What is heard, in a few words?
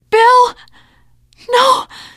grief, teengirl; video game; source engine; left4dead2; survivor